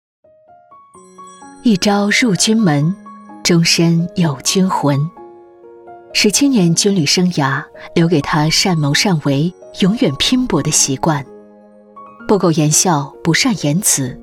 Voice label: 专题人物事迹娓娓道来

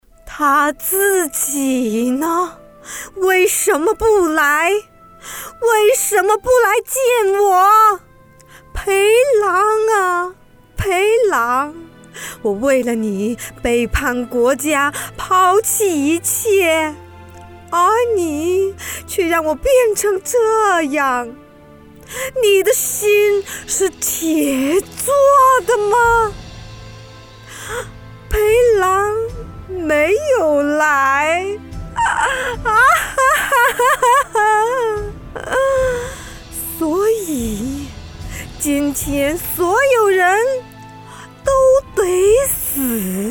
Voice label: 影视疯批御姐片段

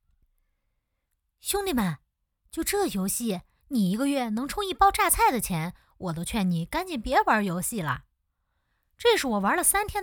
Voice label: 挖宝广告

